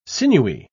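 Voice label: "sinewy", English word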